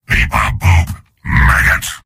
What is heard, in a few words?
soldier robot; video games; source engine; tf; mvm